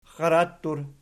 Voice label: "xxarattur", Archi